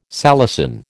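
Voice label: "salicin", American English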